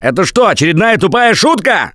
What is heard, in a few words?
reaction negative, russian; Gambler